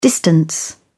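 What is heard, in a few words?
"distance", British English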